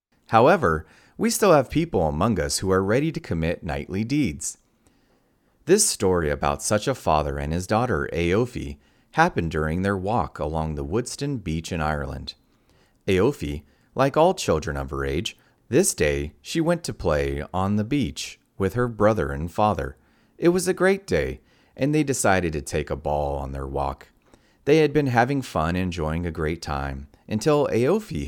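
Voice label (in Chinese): 外籍男声 干声 美式英语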